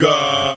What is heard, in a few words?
yuh male; ooh ahh; human